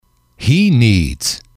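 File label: "he needs", English, male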